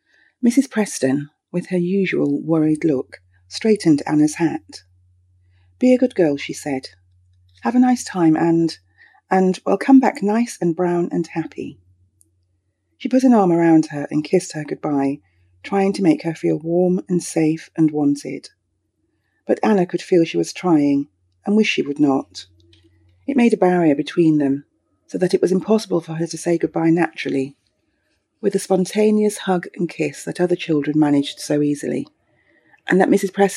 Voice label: Audio Book